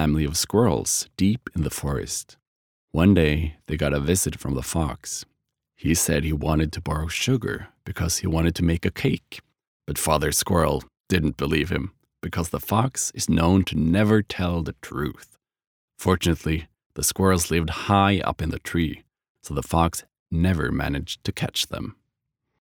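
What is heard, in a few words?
Norwegian english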